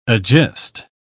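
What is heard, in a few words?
"agist", British English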